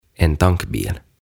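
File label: "En tankbil", Swedish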